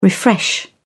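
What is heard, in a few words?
"refresh", English, uk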